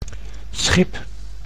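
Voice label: "schip", Dutch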